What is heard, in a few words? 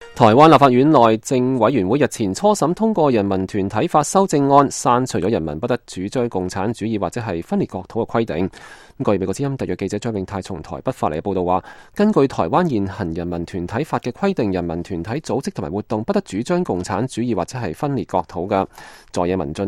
cantonese